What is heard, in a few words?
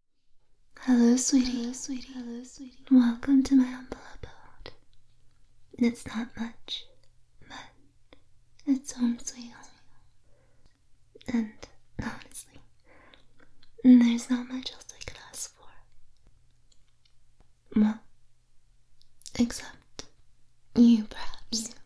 hypno